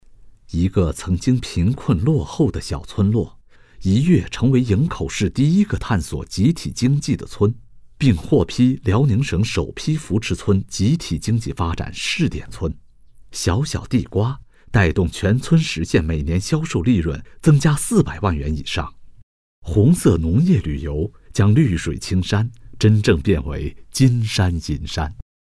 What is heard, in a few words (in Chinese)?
纪录片风格试音